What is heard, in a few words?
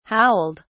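"howled", British English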